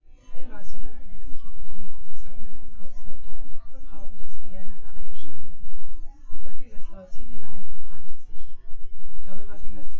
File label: female synth conv 1m; SASSEC07